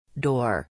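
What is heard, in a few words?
"door", English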